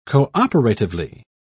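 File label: "cooperatively", British English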